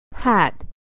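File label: "hat", American English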